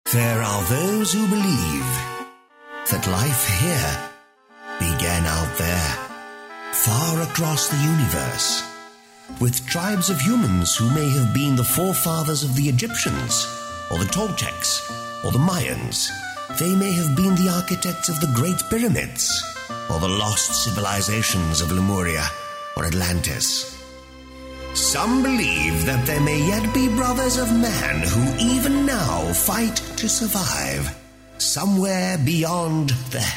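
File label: Flemish Belgium, male, voice over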